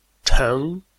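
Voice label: "cheng", Chinese, tone 2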